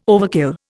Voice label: "overkill", Indian